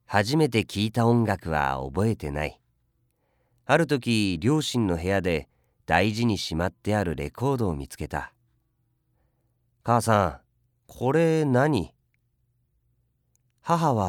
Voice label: 語り

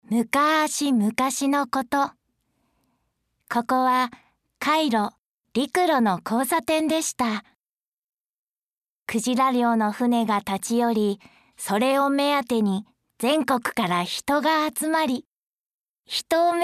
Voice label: 優しめ

